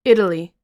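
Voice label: "italy", US English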